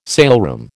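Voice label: "saleroom", American English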